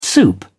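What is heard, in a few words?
"soup", British English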